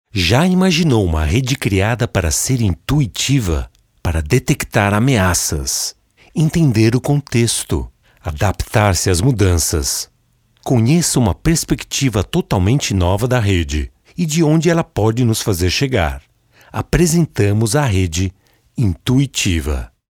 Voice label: male brazilian portuguese corporate